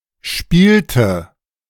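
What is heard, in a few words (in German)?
"spielte", German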